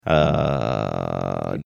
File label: drawn out uhh